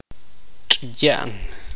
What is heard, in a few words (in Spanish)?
"perro", Tektiteko